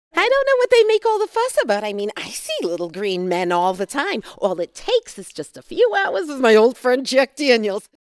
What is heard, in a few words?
NY bubbly ditzy comedic